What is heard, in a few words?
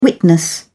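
"witness", English, British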